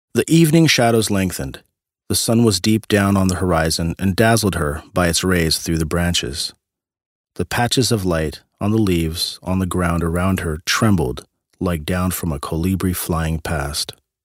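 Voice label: American English, Neutral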